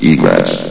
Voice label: "egress", vox; S.P.L.U.R.T-Station-13